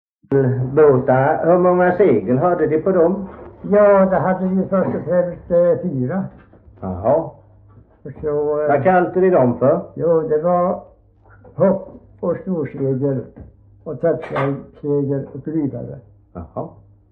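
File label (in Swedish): Värö Halland, dialekter svenska sagor; Folkminnen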